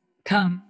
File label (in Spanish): Voice Acting es